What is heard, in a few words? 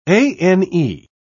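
"ane", British English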